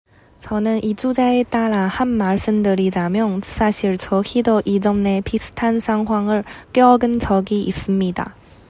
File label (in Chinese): 韩语; 저는 이 주제에 따라 한 말씀 드리자면, 사실 저희도 이전에 비슷한 상황을 겪은 적이 있습니다.